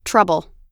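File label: "trouble", English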